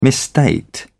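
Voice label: "misstate", British English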